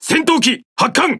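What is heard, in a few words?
Speech Cons Pilots Cat Fight Launch, Japanese; World Of Warships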